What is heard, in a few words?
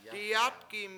I slaughtered, Washo; diyAtki7